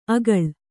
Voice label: "ಅಗಳ", Kannada

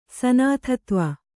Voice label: "ಸನಾಥತ್ವ", kannada

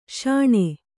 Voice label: "ಶೋಣ", Kannada

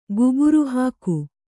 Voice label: "ಗುಬುರುಹಾಕು", kannada

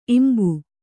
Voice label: "ಇಂಬಿ", Kannada

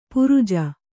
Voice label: "ಪರಿಜು", Kannada

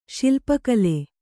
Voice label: "ಶಿಲ್ಪಕಲೆ", Kannada; śilpa kale; noun; sculpture.

